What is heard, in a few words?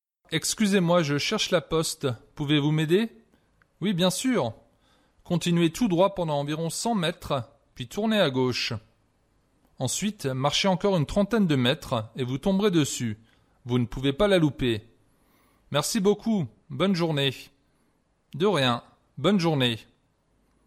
Conversation, French